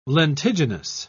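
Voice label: "lentiginous", British English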